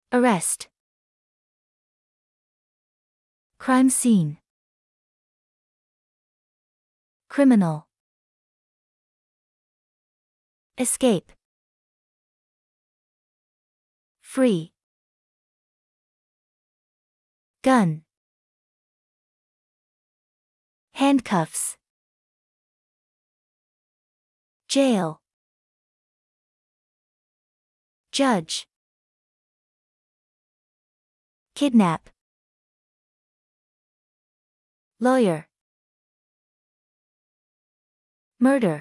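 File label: American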